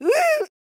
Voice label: necromancer old woman damage

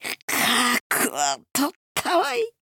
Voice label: necromancer old woman lose

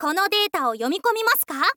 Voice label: "kono data wo yomikomimasuka", girl; voice

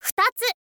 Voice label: "futatsu", info girl

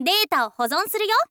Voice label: "data wo hozon suruyo", girl; voice

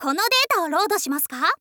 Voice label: "kono data wo load shimasuka", girl; voice

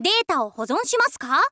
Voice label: "data wo hozon shimasuka", girl; voice

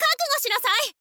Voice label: sword woman start

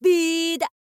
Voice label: thief boy guard

